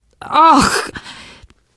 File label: getting revived, teen girl; survivor voice